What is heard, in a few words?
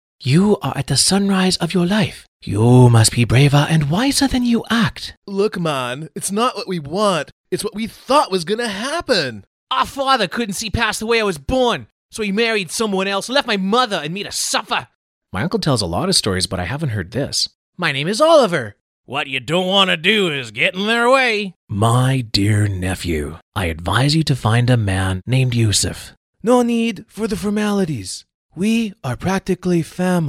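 Character male; TaDa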